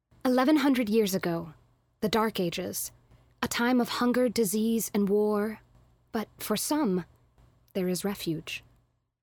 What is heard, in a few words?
Narration